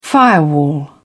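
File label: "firewall", British English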